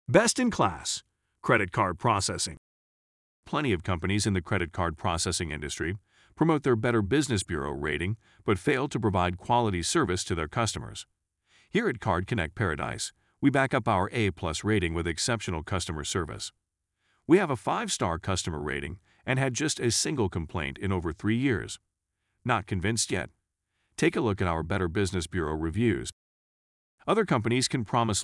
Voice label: Narration; GM Cardconnect; BestInClass